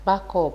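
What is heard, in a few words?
"backup" Nigerian Pidgin, female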